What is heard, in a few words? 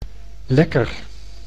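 "lekker", Dutch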